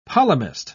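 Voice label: "polemist", British English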